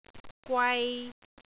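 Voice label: "gwai3", Cantonese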